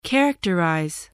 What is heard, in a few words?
"characterize", spelling